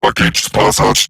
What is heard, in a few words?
Cover Me Robot Large; Dialog; Barks; Battle; en; Eximus Corpus; Corpus Robotic Large